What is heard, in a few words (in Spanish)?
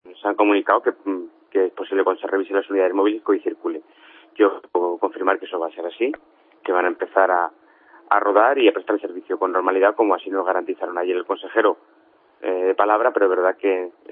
noticias